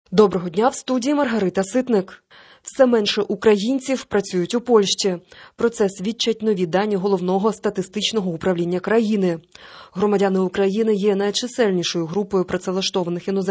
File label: Ukrainian Evening News